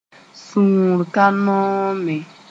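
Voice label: "sũur ka noome", Mooré; displeased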